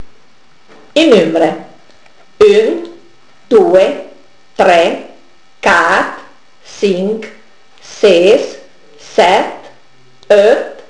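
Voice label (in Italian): numeri, Peveragno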